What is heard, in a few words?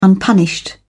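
"unpunished", British English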